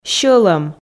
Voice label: "сьӧлӧм", Komi